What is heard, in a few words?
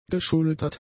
"geschultert", German; adjectives